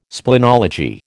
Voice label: "splenology", American